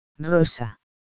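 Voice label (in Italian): "rosa", italiano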